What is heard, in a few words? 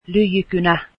pencil, finnish